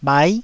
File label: "bhai9", taiwanese